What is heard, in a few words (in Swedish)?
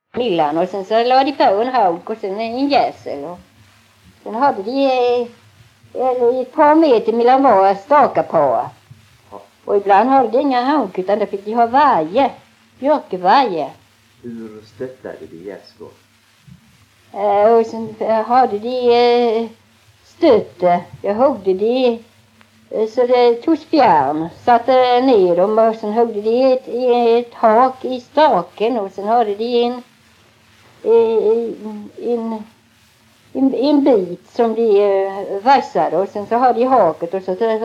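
Tving Blekinge, Svenska sagor dialekter; Folkminnen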